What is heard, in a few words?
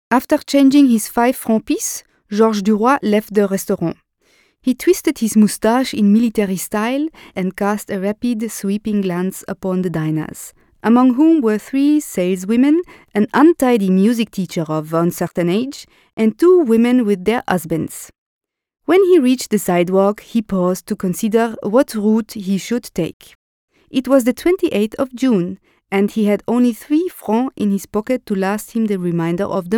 English French accent